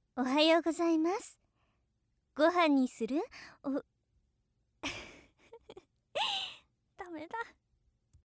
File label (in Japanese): 「おはようございます」